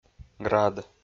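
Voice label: "град", Komi-Zyrian, male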